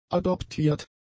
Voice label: "adoptiert", german; adjectives